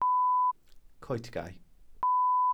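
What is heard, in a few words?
"coetgae", Welsh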